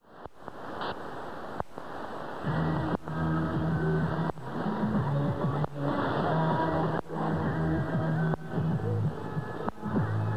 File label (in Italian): 15140 kHz, tibetano; VOA; FT2000; dipolo